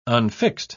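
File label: "unfixed", English, uk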